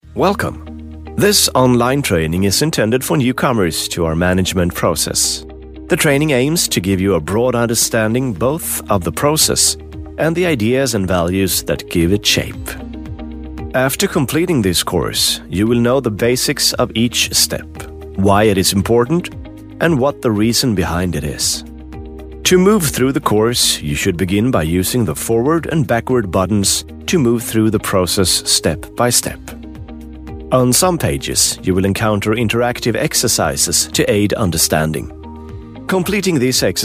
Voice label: Swedish voice, english

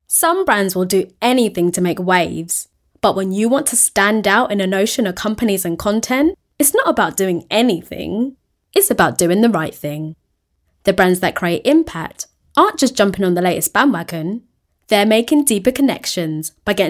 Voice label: london female voice natural

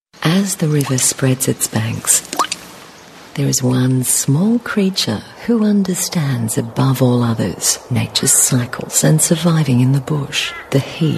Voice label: documentary

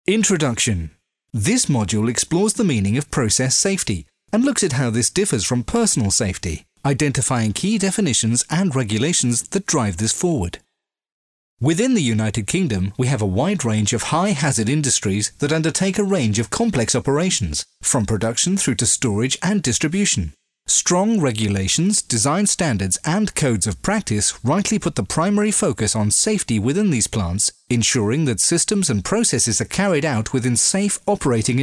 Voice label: e-learning

